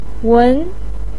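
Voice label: "wen2" Chinese syllable, pinyin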